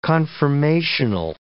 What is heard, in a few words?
"confirmational", English